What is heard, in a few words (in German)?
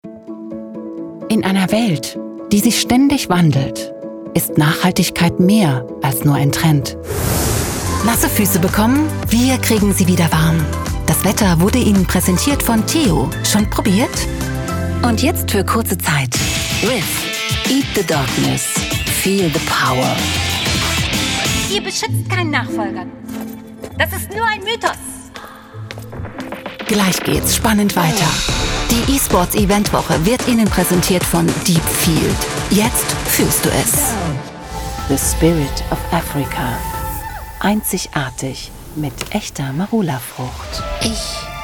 Sprecherin